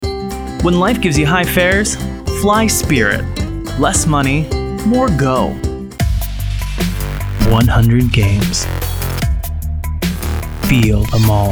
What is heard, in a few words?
Commerical